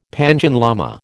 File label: "Panchen lama", American